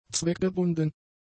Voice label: "zweckgebunden", German; adjectives

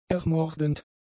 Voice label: "ermordend", German; adjectives

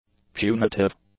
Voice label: "punitive", english; adjectives

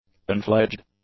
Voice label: "unfledged", english; adjectives

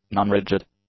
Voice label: "nonrigid", english; adjectives

